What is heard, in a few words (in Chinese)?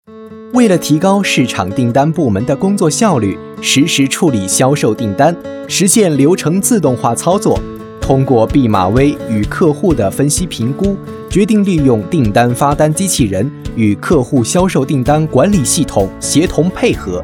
配音; 销售系统